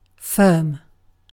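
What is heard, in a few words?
"firm", British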